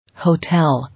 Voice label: "Hotel", English